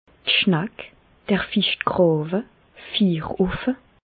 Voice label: "schneck", Bas Rhin; medecine; vagin

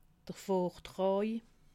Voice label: "vortraa", alsace

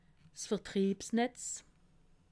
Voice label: "vertriebsnetz", alsace; le circuit du livre

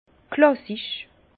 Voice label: "klassisch", Bas Rhin; vin; classique

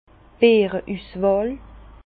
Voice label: "beere", Bas Rhin; vin; grains nobles

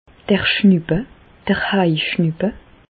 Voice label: "schnuppe", Bas Rhin; medecine; rhume

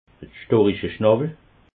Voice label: "storicheschnawwel", alsace

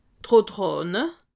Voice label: "rotrahne", alsace

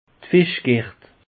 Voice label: "fischgert", alsace; peche

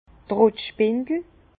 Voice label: "trottspindel", Bas Rhin; vin; pressoir à vis

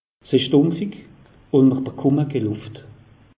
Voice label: "isch dumpfig", alsace; meteo

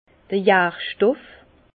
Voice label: "jarstoff", Bas Rhin; ferment; vin

